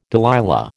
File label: "Delilah", American